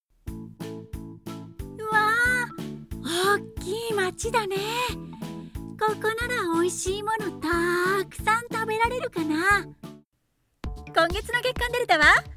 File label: Character voices (BGM)